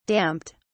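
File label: "damped", British English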